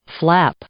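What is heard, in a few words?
"flap", English; noun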